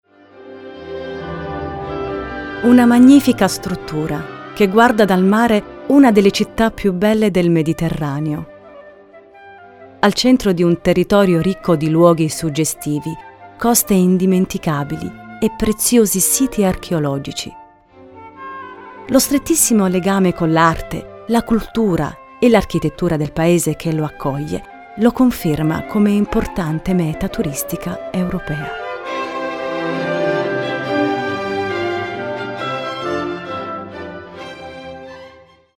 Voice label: documentary, demos; voxpop